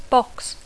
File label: "box", English